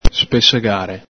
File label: "spesegare", Veneto